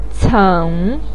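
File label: "cen" third tone, Mandarin Chinese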